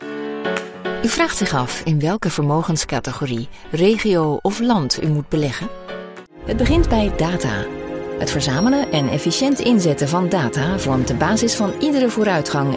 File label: Dutch explainer